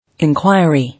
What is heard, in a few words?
"Inquiry", English